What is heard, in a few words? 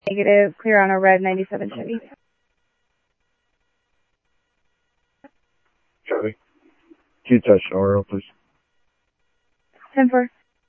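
Mesa police department, scanner audio trunk; Mesa Simulcast; MESA PD 3 Red Mt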